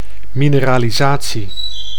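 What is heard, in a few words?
"mineralisatie", Dutch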